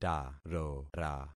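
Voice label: "tarora", concatenated syllables; Affixing experiment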